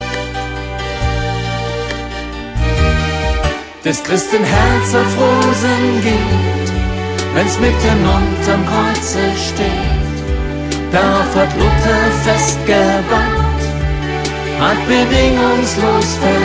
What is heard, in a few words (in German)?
hoerspiel